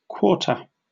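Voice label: "quarter", British English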